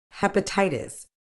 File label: "hepatitis", medical English